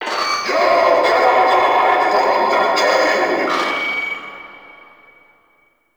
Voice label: Vocal Quest Loudspeaker, Town Escape; Warframe; Duviri; Ambience